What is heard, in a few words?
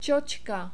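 "cocka" Czech, male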